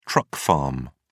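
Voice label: "truck farm", British English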